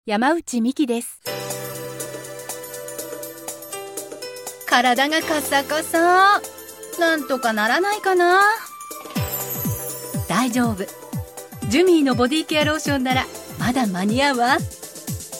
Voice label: female commercial

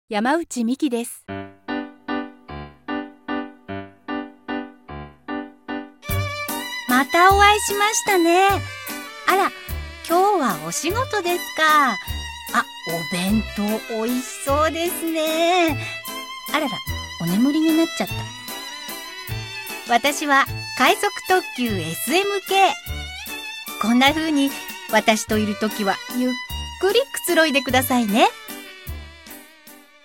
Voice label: female web video en

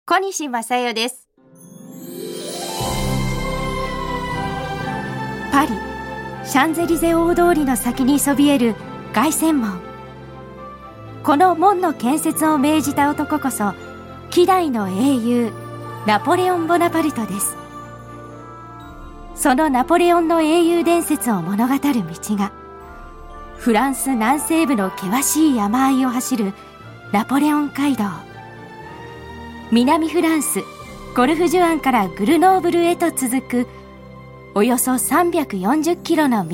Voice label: female tv program; tour at paris

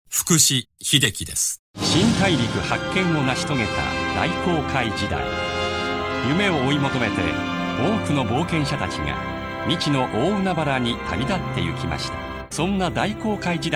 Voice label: male tv program

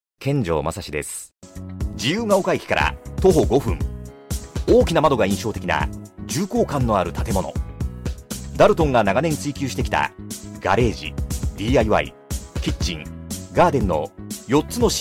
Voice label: male VP

